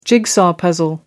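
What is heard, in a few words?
"jigsaw puzzle", British English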